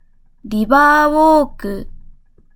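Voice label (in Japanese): "リバーウォーク", Japanese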